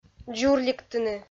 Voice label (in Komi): "дзурликтыны", Komi